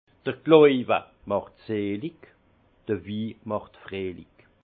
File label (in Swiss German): "glauwe", alsace; la foi rend bienheureux le vin rend heureux